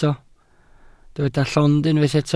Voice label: "llond", Welsh